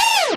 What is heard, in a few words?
"ooh" robot